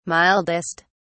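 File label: "mildest", British English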